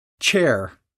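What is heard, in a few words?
"chair", American English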